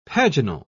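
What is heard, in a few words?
"paginal", British English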